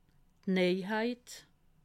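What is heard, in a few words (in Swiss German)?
"neiheit", Alsatian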